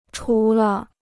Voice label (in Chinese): "除了", Chinese